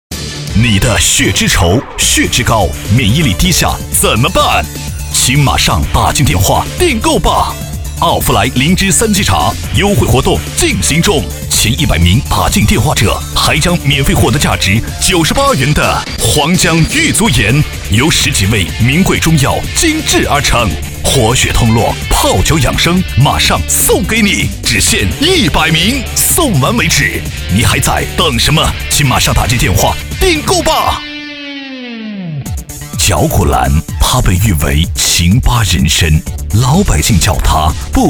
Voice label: 男 电购, 国语男声配音, 浑厚; 药茶; 浑厚专题

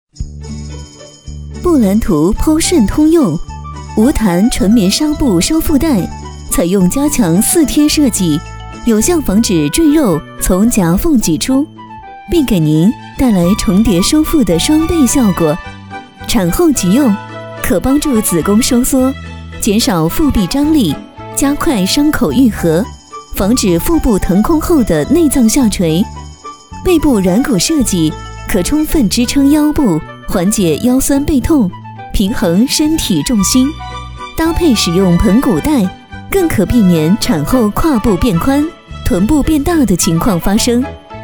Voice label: 女, 电视广告, 收腹带, 时尚青春

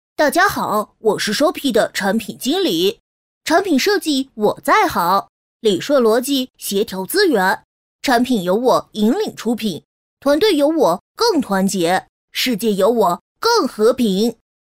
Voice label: 女, 男童, 产品介绍

